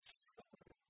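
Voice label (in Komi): "сёрни бӧрын", Komi, female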